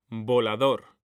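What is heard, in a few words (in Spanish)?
"volador", Spanish, male; mekanta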